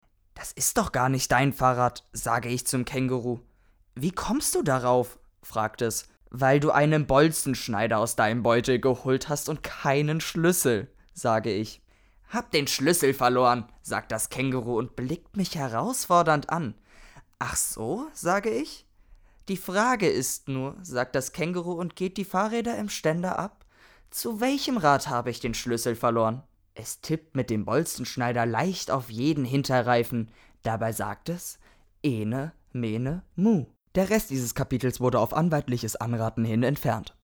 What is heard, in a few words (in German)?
Hoerbuch